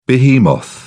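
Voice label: "behemoth", en, uk British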